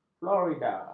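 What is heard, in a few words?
"fluorida", Malay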